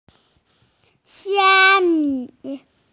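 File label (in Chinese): "xia1 mi5" Mandarin Chinese, female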